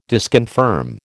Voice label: "disconfirm", American